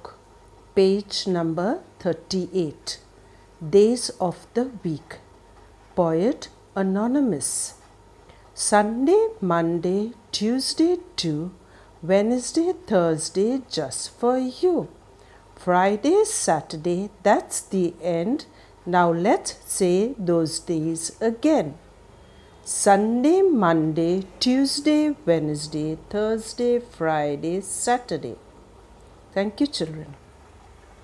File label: Recitation